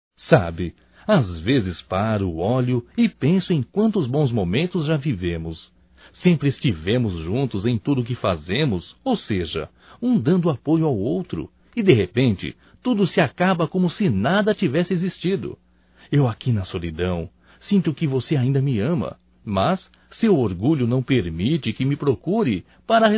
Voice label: RECONCILIAÇÃO, Telemensagens, Românticas, masc

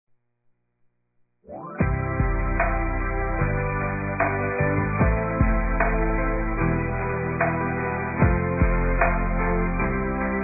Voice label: Dia das Mães Adotiva Feminino; Telemensagens 2017

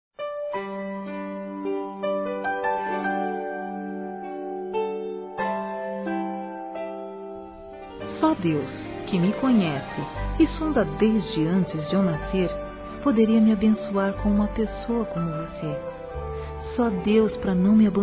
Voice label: HOMENAGEM AO PAI F, Telemensagens Gospel fem; Telemensagens 2017

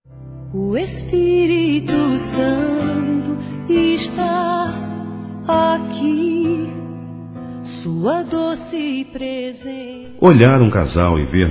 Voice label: Evangélico Aniversário Casamento Geral Masculino

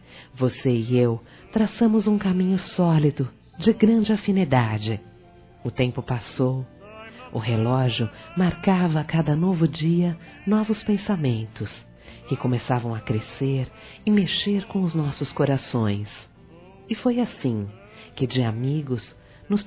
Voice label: AMIZADE QUE VIROU PAIXÃO, Telemensagens, Fem

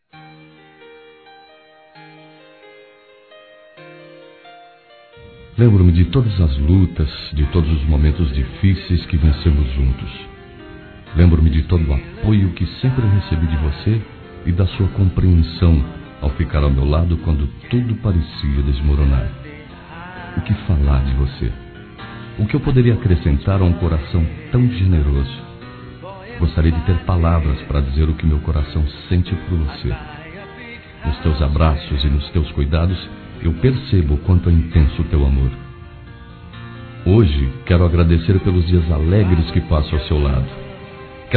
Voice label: Desculpa Esposa Masculino; Desculpas; Telemensagens 2017

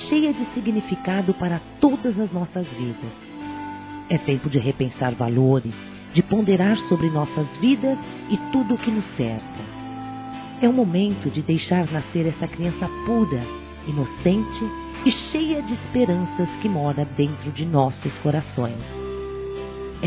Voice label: BRINDAR O NATAL F; Telemensagens; Natal e Ano novo; fem; Misto

